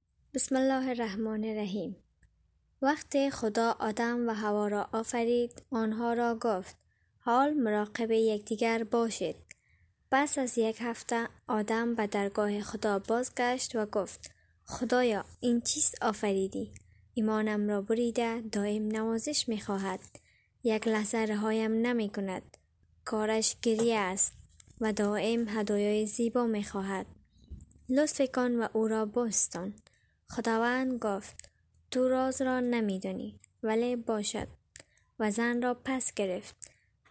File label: dari female